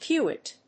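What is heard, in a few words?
"pewit", English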